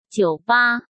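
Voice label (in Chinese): "酒吧", Mandarin Chinese; chinese flashcards; hsk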